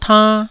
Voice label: "tann1", Taiwanese